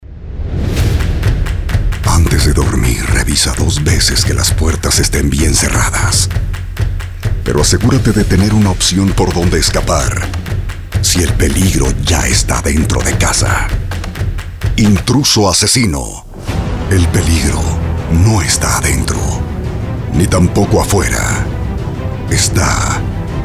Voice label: locución tono suspenso con musica

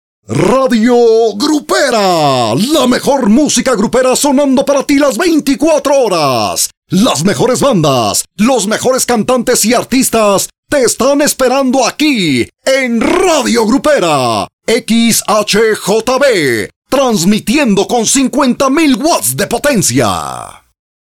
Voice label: locucion tono grupero sin musica

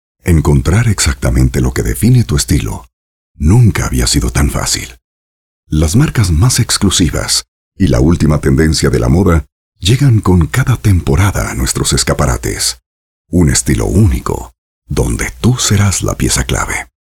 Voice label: locucion tono elegante sofisticada locutor sin musica

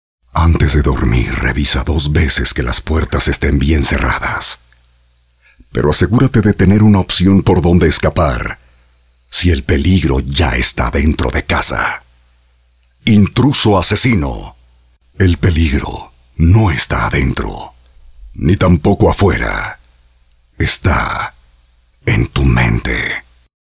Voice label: locucion tono suspenso sin musica

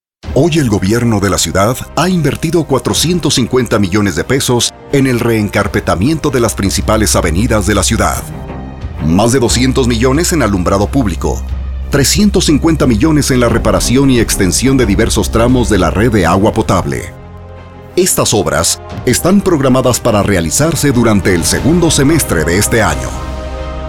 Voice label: locucion tono gubernamental con musica

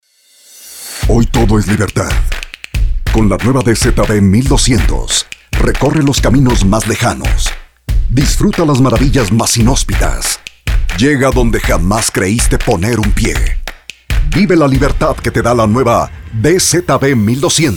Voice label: locucion tono ruda con musica

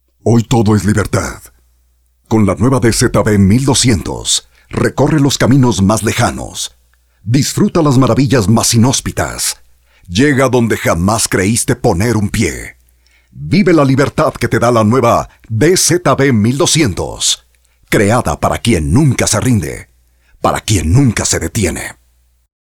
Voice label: locución tono ruda sin musica

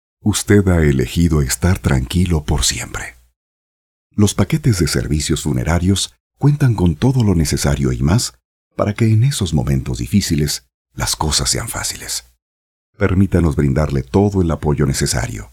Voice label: locucion tono amable sin musica

